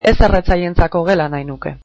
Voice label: I would like a non-smoking room, basque

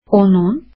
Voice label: "his", azeri

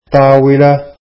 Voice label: "table", arabic

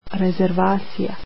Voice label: "reservation" croatian

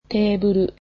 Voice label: table, japanese

